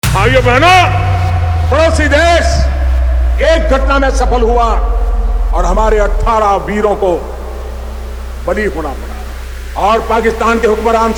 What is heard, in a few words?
Angry Dialogue Remix